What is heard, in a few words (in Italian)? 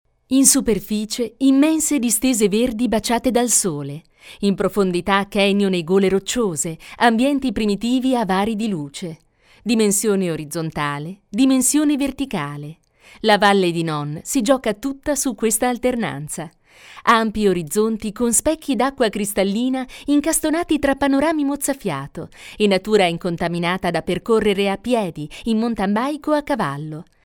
ITALIANO